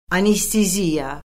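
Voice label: "anesteziya", Azerbaijani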